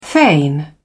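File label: "feign", British English, dictionary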